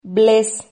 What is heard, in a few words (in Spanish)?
"bles", Silabas